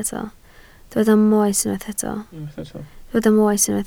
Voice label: "moes", Welsh

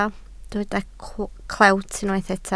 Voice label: "clewt", Welsh

